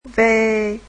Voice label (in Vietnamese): "v", Vietnamese alphabet